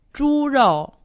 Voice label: "zhurou", Chinese; Survival Chinese